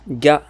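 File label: "ga", French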